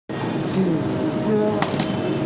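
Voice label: "zhi2ye4", Mandarin Chinese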